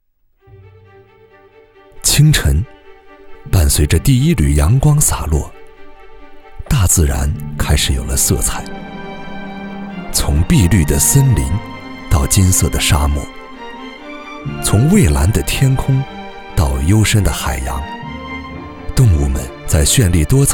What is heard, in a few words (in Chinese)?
男 动物 纪录片 清晨